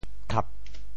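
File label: "thap4", Teochew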